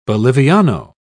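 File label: "boliviano", British English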